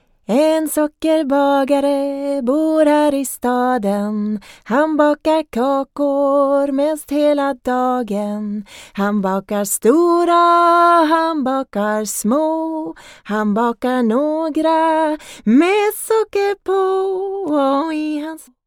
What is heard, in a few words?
swedish